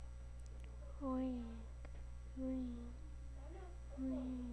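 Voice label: "ring", spoken word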